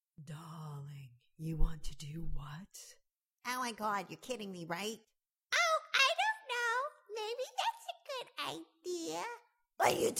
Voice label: cartoon voices

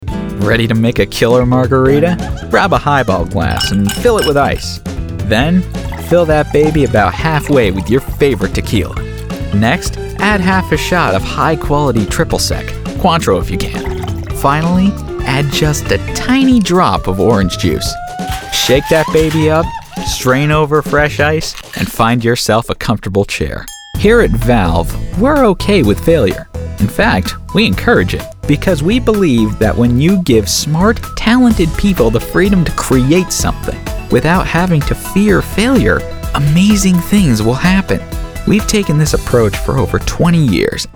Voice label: Rock Narration

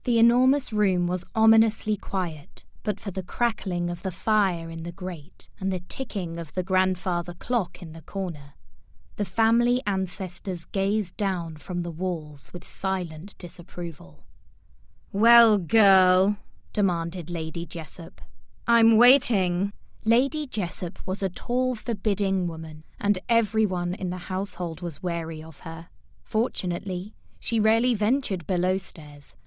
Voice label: short narration

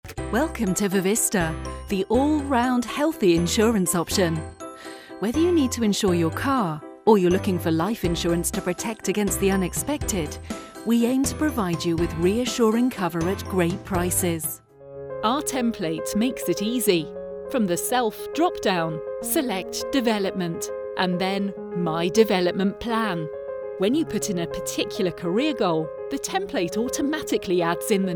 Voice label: Explainer Voiceover Reel

